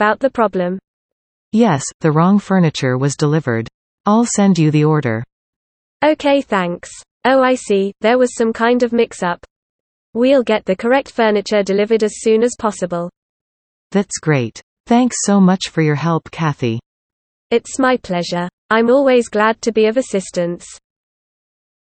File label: Office telephone conversation